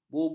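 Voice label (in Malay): "bubuk", Malay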